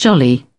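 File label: "jolly", British English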